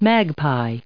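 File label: "magpie", English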